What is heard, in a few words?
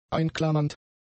"einklammernd", German; adjectives